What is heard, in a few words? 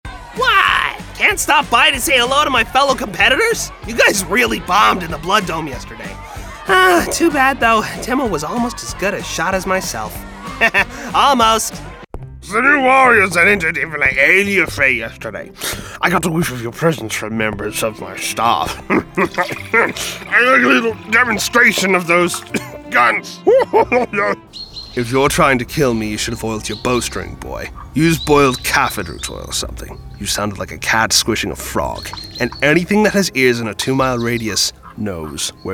Animation